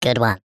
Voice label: cartoon male high pitched voice says good one